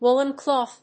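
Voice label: "woolen cloth", English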